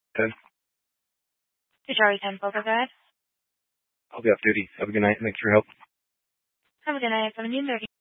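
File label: Dispatch, Scanner audio recording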